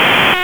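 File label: Dispatch 1, P25; WSO DISP 1; wake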